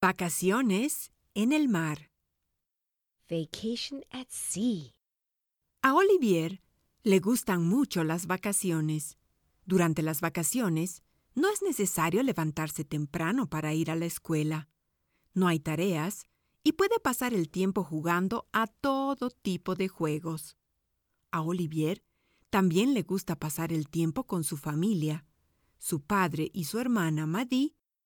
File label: Bed Time Stories Spanish